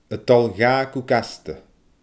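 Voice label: "a dol dha gu gasta", Scottish Gaelic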